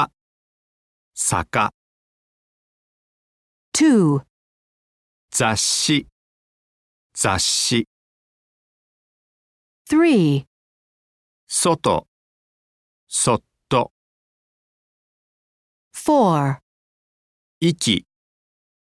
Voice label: Hiragana Double Consonants, japanese